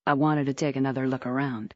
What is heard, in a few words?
fem